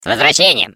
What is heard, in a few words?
Defective Turret, ru; turret defect return